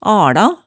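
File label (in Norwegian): "ala", Hemsedal dialect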